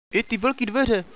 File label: double doors, radio bot